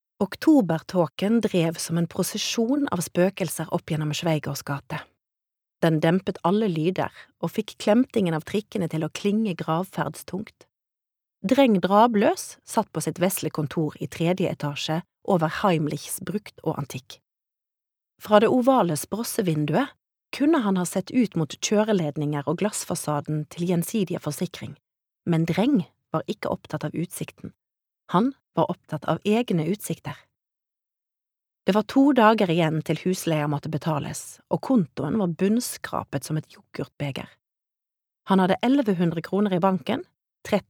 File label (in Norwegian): no lydbok, norwegian, female